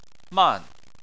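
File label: "man", Chinese, fourth tone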